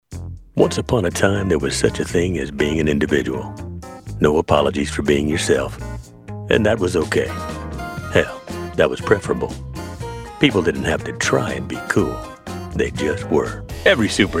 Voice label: Broadcast Narration

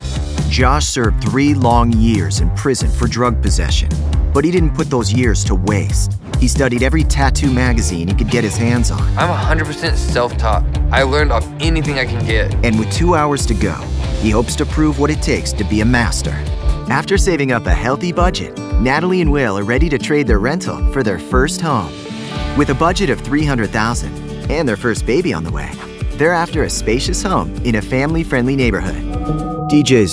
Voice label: TV Narration

